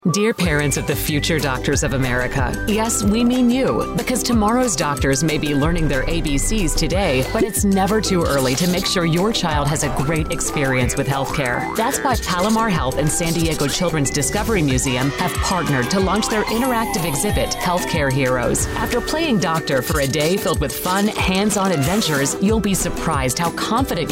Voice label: radio; 2022 Palomar Healthcare Heroes